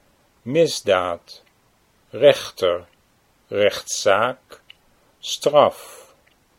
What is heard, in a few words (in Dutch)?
"misdaad rechter", Dutch